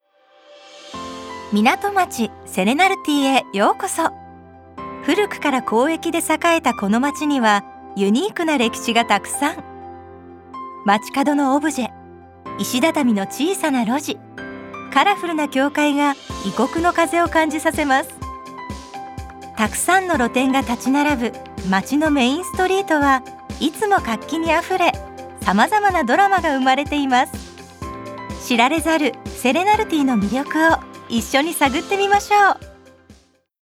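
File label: Guide, Japanese; 番組ナレーション; 「そんじょそこら商店街 再生の物語」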